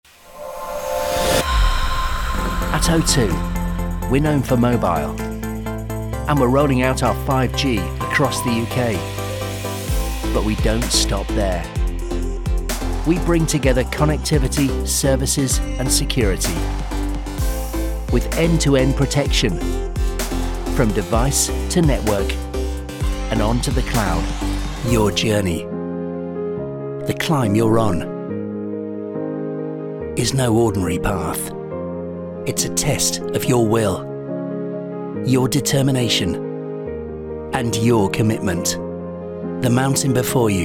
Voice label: corporate reel, voiceover